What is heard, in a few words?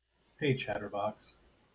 hey chatterbox, en us